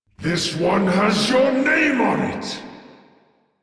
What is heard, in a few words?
Tactical, Battle Barks